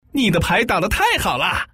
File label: putonghua man; mahjong paohuzi